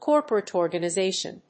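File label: "corporate organization", English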